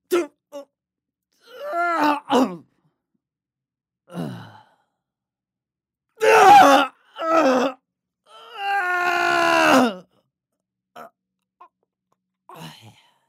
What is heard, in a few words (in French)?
jeu video morts de differents personnages adulte medium